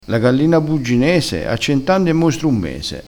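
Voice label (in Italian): "gallina bugginese", dialettale crespinese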